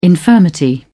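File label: "infirmity", British English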